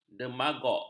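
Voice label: "demagog", Malay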